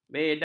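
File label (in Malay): "beda", Malay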